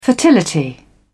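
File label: "fertility", British English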